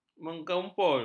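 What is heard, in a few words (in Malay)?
"mengumpul", Malay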